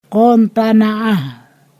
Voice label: "this", Ponosakan